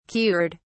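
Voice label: "cured", British English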